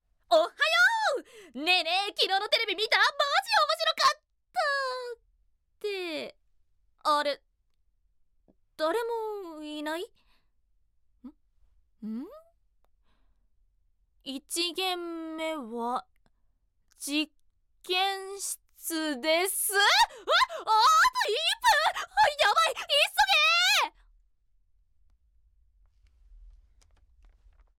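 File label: cheerful student, Japanese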